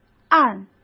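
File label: "暗" Mandarin Chinese; chinese flashcards; hsk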